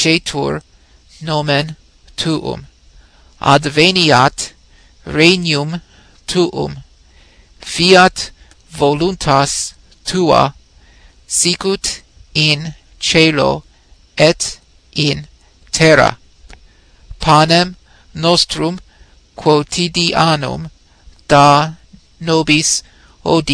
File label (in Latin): pater noster latin slow reading